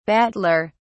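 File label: "battler", British English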